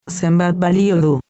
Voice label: "how much", Basque